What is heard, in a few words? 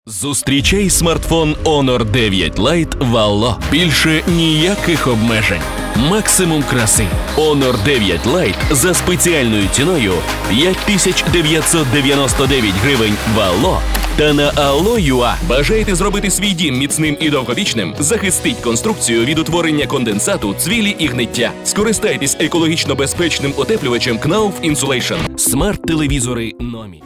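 Ukrainian, male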